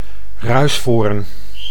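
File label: "ruisvoorn", Dutch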